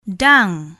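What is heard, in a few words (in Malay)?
"dang", Malay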